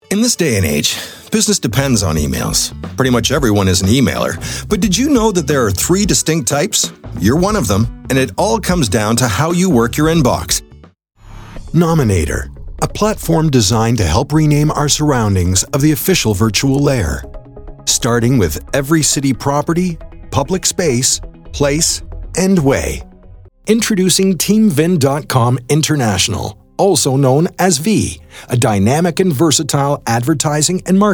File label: English Canadian explainer videos